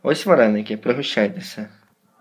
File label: dialogue, Ukrainian